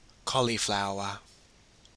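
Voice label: "cauliflower", Australian English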